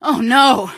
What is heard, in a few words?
"oh no", female; npc; Garry's Mod; hl2